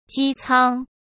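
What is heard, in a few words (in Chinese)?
"机舱", Mandarin Chinese; chinese flashcards; hsk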